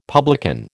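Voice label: "publican", American English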